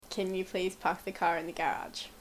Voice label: Australian English, Can you please park the car in the garage?